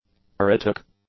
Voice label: "pruritic", english; adjectives